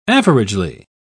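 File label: "averagely", English, British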